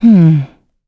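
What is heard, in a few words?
Vox Think, voices heroes English; kingsraid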